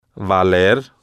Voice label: "valer", Spanish

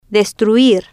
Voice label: "destruir", Spanish

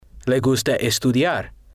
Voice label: "le gusta estudiar", Spanish

